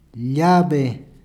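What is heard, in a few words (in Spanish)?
"llave", Spanish, Peru, Cuzco; [ˈʎaβ̞e]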